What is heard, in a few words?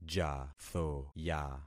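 "jathoya", concatenated syllables; Affixing experiment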